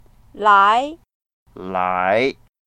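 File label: "lai2", Mandarin Chinese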